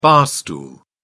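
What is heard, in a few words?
"bar stool", British English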